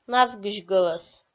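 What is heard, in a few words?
"назгысь гӧлӧс", Komi, female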